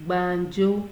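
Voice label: "gbanjo", Nigerian Pidgin, female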